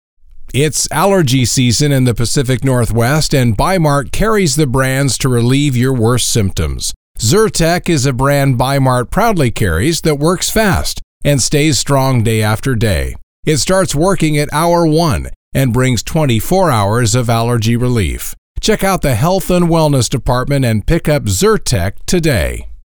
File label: In Store Message; Zyrtec